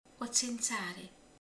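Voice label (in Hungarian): "szúnyog", Hungarian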